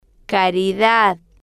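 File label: "caridad", Spanish, female, slow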